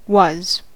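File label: "was" stressed, American English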